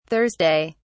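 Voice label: "thursday", British English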